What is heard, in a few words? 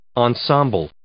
"ensemble", English; noun